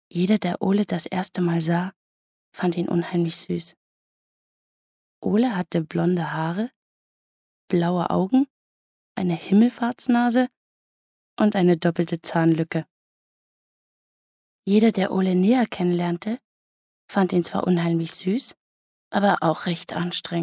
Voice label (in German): Lesung